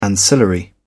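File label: "ancillary", English, British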